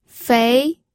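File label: "fei", pinyin, second tone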